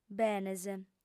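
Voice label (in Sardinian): "benes", female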